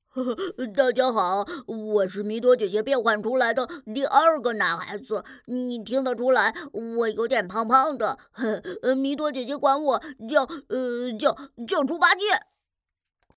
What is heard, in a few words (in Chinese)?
男童; 声展男童2猪八戒